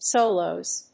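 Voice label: "solos", English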